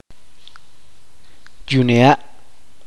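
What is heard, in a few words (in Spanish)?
río, chuj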